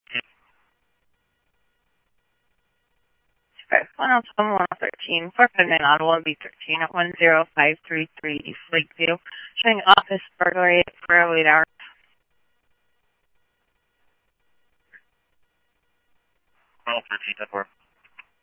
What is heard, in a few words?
Scottsdale Police, scanner audio; H-Scottsdale